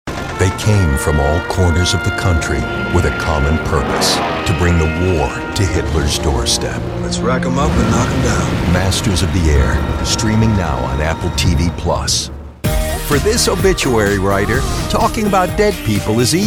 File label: men promos